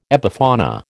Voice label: "epifauna", American